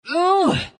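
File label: pain, Chinese; dota2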